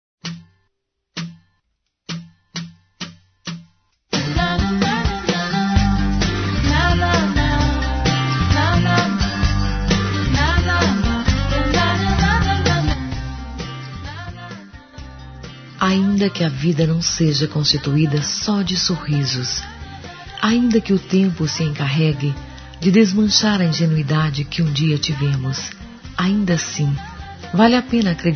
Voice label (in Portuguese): Aniversário Neutro F; Aniversários; Aniversários diversos